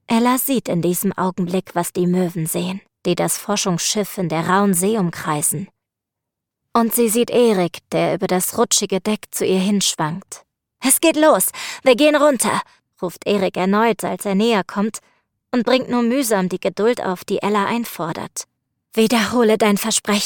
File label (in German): Hoerbuch; Audioquants